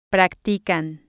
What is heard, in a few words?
"practican", spanish